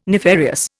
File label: "nefarious", Indian